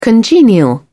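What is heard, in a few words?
"congenial", British English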